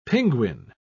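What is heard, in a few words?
"pinguin", British English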